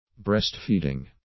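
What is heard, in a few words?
"breastfeeding", English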